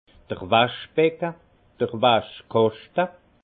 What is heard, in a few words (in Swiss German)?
"waschbecke", alsace; vin; bac à linge